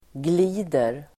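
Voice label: "glider", Swedish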